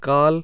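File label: voiceless unaspirated velar, hindi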